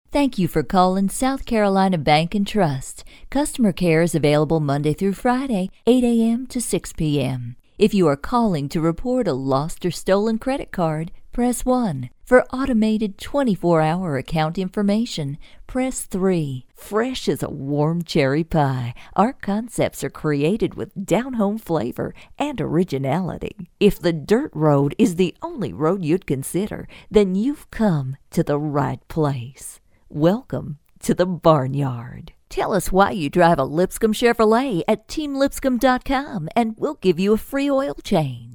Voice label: Southern